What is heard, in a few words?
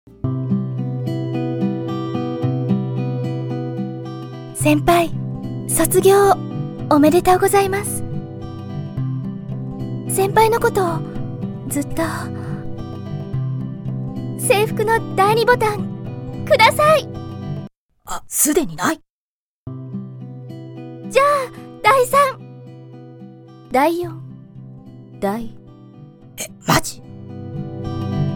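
character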